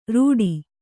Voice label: "ರೀಠೆ", Kannada

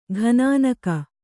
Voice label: "ಗುನ್ನಿಗ", Kannada

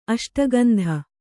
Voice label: "ಅಷ್ಟಗಂಧ", Kannada

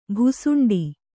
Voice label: "ಭುಸುಂಡಿ", Kannada

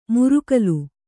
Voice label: "ಮುರುಕಲು", Kannada; murukalu; noun; that which is broken.

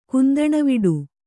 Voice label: "ಕುಂದಣವಿಡು", Kannada

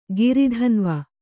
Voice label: "ಗಿರಿಧನ್ವ", kannada

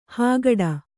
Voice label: "ಹಾಗಡ", kannada

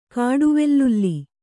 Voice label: "ಕಾಡುವೆಲ್ಲುಲ್ಲಿ", Kannada; kāḍu vellulli; its bulb; Indian scilla.

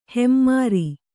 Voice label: "ಹೆಮ್ಮರ", Kannada

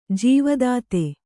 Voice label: "ಜೀವದಾತ", Kannada

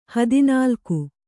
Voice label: "ಹದಿನಾಲ್ಕು", Kannada

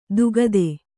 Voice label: "ದುಗದೆ", Kannada; dugade; noun; = ದುಗ್ಧೆ.

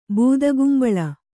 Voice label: "ಬೂದಗುಂಬಳ", Kannada

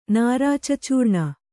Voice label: "ನೂರು", Kannada

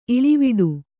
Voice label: "ಇಳಿವಿಡಿ", kannada

